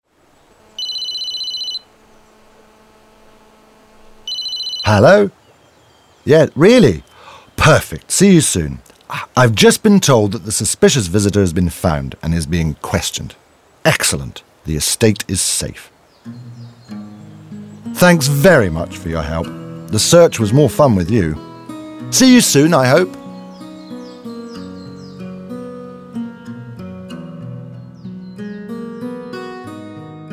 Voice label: audioguide en enfant; modave